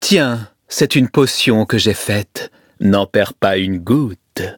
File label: levelup, fr; Cookie Run